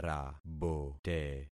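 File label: "rabote", concatenated syllables; Affixing experiment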